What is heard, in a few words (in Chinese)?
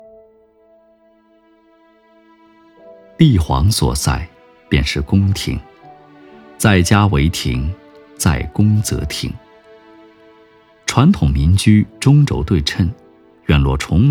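纪录配音（自然讲述、娓娓道来）; 故宫; 故宫配音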